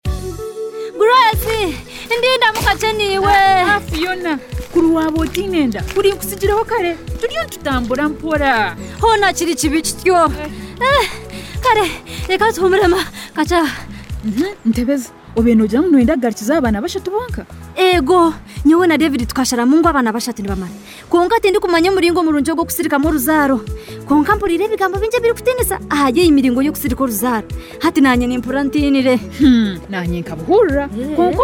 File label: Radio Spot Runyankore Rukiga; IUD; happiness iud radio spots clients